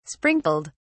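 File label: "sprinkled", English, British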